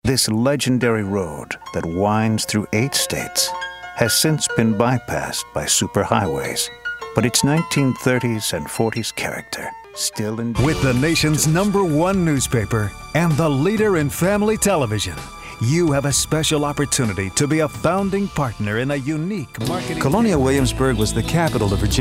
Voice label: Narr